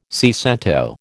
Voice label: "seicento", American